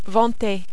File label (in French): "vanter", French